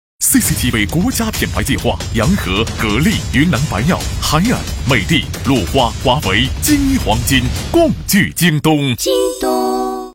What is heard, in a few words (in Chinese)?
国语男 广告 产品类; 京东央视国家品牌计划广告